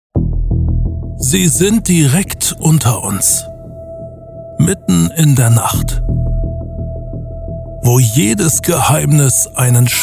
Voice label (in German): TV Trailer